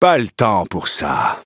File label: defeat, fr